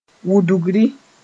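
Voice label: "wudgri", Mooré; running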